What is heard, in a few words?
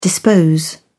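"dispose", British English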